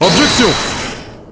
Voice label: objection, French; Godot